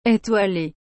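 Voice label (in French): "étoiler", French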